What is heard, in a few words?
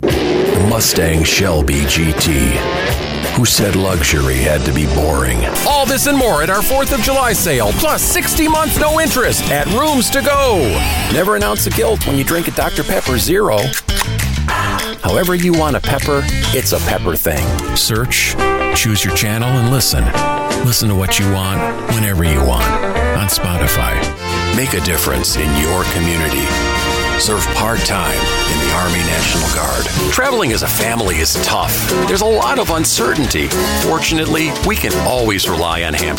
COMMERCIAL